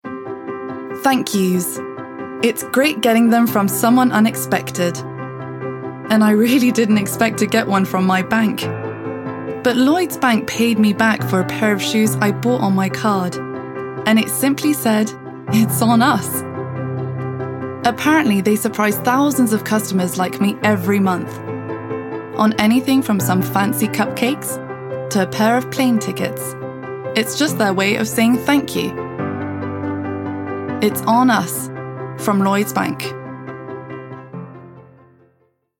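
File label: British English, commercial; GoLocalise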